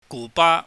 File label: "guba", Cantonese